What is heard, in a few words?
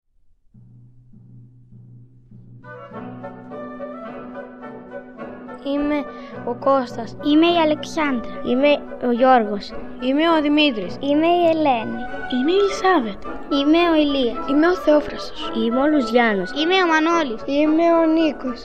Radio Spot; 10th Primary School Rethymno